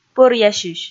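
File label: "пӧръясьысь", Komi, female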